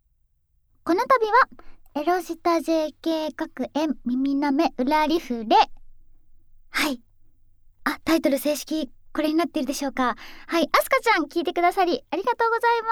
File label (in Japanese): フリートーク; エロ舌JKアスカちゃんの学園耳舐め裏リフレ